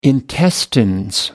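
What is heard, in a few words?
"intestines", British English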